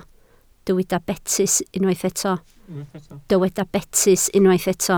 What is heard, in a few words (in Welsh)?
"betys", Welsh